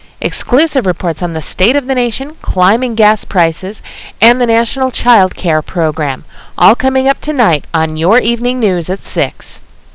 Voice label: News